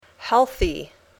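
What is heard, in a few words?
"healthy", English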